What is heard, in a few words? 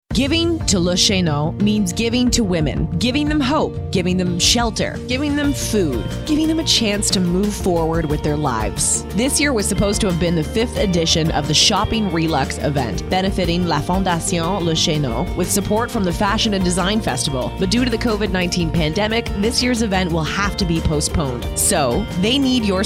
female english canadian neutral north american accent; commercial; le chez nous